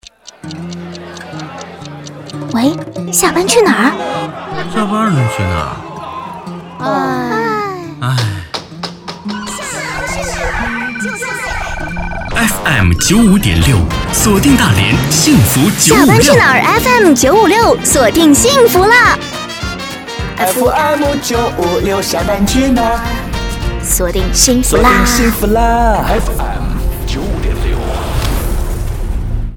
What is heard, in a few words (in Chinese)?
电台版头